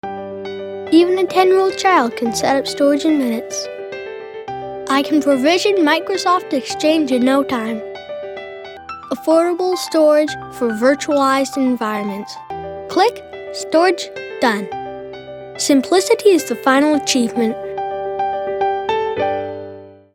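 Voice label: American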